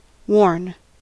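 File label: "warn", American English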